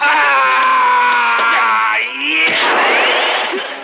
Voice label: "ah yeah", Voice

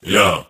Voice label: "yo", group of men; ooh ahh

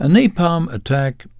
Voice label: "napalm attack", Voice

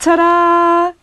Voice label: "tadaa", female

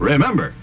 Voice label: remember, announcer

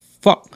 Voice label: "fuck", expletives

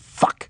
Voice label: "fuck", expletives

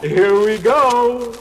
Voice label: here we go, cartoon

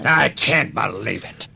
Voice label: i can't believe it, cartoon

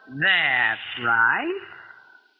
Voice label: "that's right", female; Voice

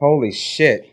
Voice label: holy shit, Voice expletives

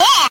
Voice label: "yeah" cartoon, voice

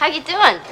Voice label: "how you doing", female; questions

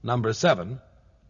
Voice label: "number seven", male; Voice; numbers and letters

